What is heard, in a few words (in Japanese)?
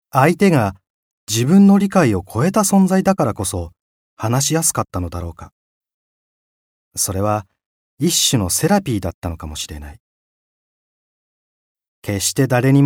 モノローグ